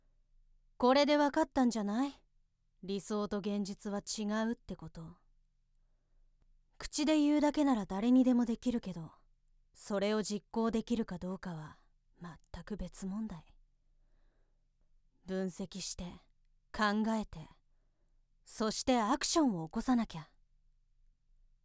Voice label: 女性 クール系

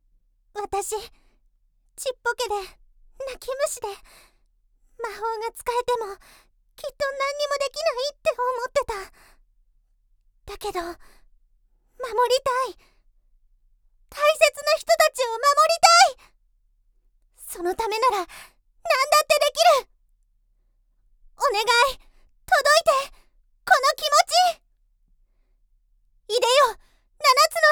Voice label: 少女 願い

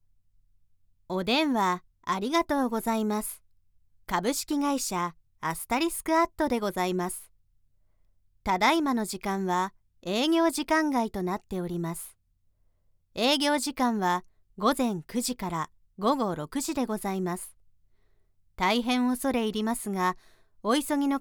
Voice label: 企業電話アナウンス

